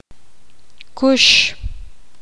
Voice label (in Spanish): poqomam derecho